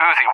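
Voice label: "Uzi", English, USA; CallSign; DCS; Allied Flight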